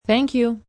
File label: Thank You F, female; Etiquette; Voice Box